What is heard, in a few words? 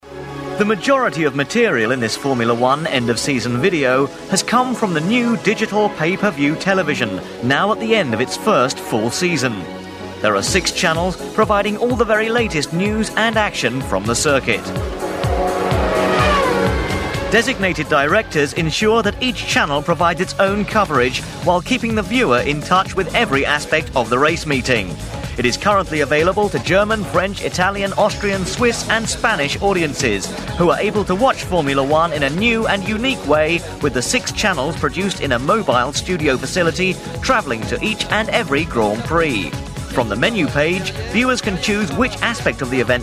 Narration; Formula1; Vocal Point